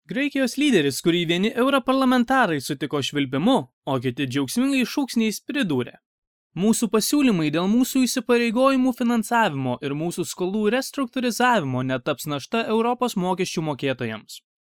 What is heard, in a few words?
male lithuanian voice over talent upbeat